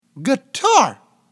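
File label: "guitar", English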